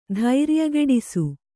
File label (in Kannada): "ಧೈರ್ಯಗೆಡಿಸು", Kannada